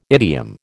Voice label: "idiom", American